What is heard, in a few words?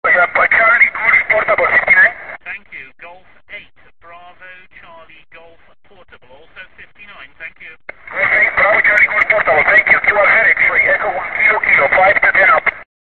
qso